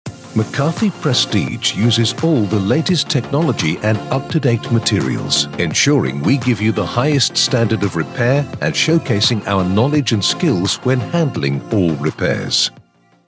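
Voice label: Voice Overs, South Africa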